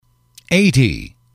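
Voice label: "eighty", English, male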